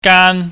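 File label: "gaan", Cantonese, high falling tone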